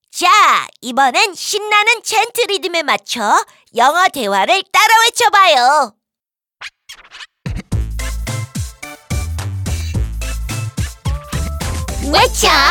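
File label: sentence English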